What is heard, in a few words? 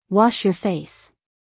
Wash your face, english; Time and Routine